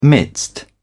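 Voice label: "midst", British English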